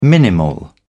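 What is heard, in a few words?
"minimal", British English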